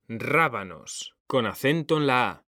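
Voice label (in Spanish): "RÁBANOS", Spanish; mekanta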